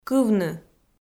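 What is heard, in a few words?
"кывны" Komi, female; kpv audio dict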